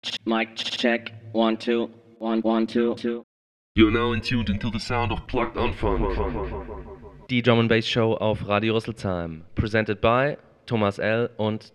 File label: jingle mic check